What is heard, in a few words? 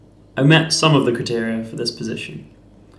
interviewee male